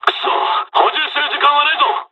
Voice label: dialog, dialogs japanese; us midway; War Thunder